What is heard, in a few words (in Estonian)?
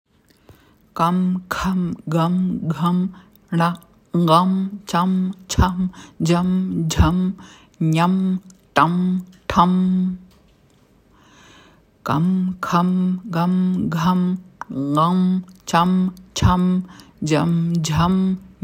kam kham gam gham ṅam cam cham jam jham nam ṭam ṭham, sanskriti; kaheteistkümne kroonlehega lootoseõiel